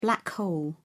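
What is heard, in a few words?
"black hole", British English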